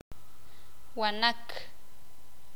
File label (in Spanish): "cacto", Sakapulteko